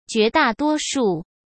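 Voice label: "绝大多数", Mandarin Chinese; hsk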